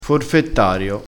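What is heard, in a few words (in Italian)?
"forfettario", vocabolario dialettale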